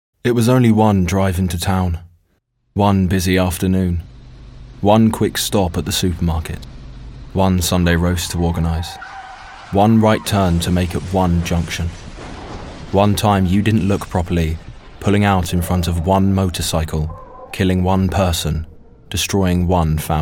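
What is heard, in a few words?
English UK, commercial; GoLocalise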